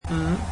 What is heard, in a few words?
"five", Cantonese